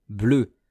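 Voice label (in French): "bleu", French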